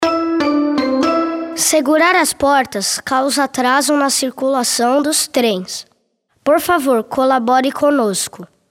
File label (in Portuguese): metrosp avisos sonoros criancas